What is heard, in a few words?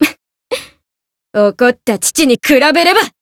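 Voice encounter fear, Japanese; kikyou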